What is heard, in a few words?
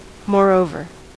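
"moreover", American English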